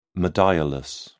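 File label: "modiolus", British English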